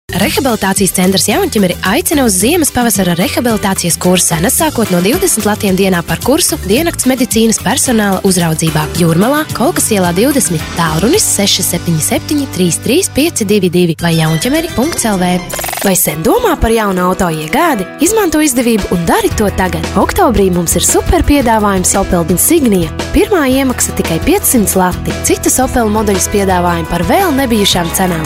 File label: latvian female